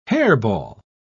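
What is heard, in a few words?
"hairball", British English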